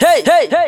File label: "hey" 4x